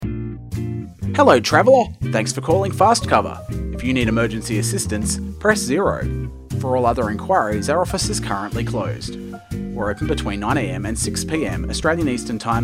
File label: after hours greeting